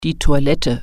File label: "toilet", German